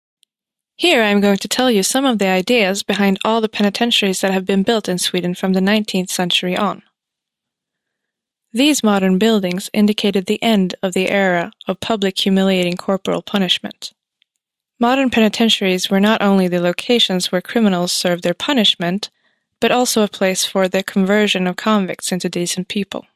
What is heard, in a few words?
cell, audioguider; eng